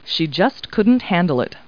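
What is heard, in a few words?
woman; Talking Clip Words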